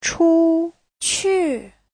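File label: "chūqù", Mandarin Chinese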